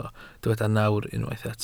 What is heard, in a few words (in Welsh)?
"nawr", Welsh